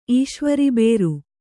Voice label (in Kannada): "ಈಶ್ವರಬೇರು", Kannada